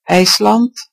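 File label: "iceland", Dutch; gcompris-data; geography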